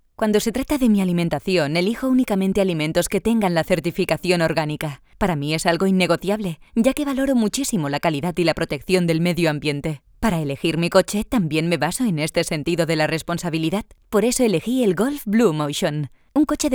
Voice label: spanish f narration, spanish voice demos